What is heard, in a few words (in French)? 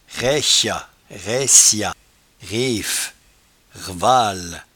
"raichat", patois jura